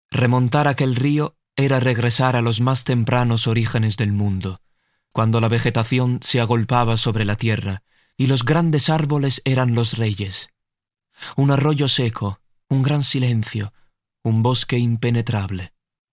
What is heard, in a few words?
spagnolo IMPOSTATA